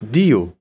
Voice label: "diyo", arabische jungennamen

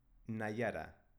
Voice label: "naiara", baskische

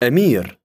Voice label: "amir", arabische jungennamen

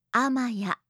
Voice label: "amaya", japanische maedchennamen

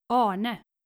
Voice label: "arne", nordische jungennamen

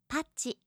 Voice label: "pachi", japanische

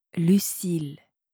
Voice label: "lucile", franzoesische maedchennamen

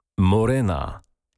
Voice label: polnische maedchennamen morena

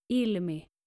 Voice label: "ilmi", skandinavische maedchennamen

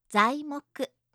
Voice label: "zaimoku", japanische

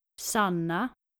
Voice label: nordische maedchennamen sanna

